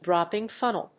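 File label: "dropping funnel", English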